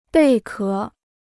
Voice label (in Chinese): "贝壳", Chinese